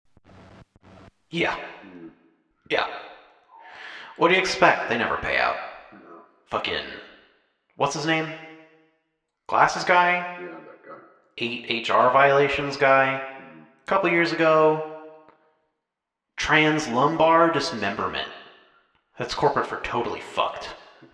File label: PA Hot Mic; Mothership; Dinoplex Cataclysm